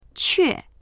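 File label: "que4", Chinese; 却; …なのにかえって；退く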